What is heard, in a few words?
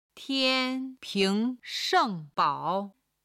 "tian1ping2sheng4bao3", Chinese